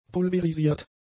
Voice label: "pulverisiert", German; adjectives